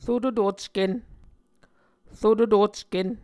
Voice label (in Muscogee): "rototocken", muscogee